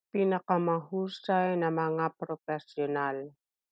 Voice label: Tagalog; best professionals - pinakamahusay na mga propesyonal